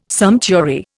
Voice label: "sumptuary", Indian